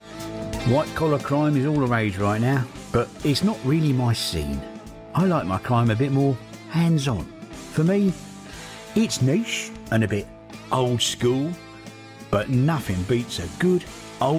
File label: London Accents